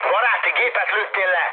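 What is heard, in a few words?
Hungarian player killed ally mood med, speech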